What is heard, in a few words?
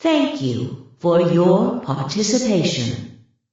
announcer thank you for your participation